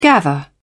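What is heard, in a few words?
"gather", British English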